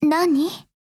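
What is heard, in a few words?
INTERACT, Japanese; hubble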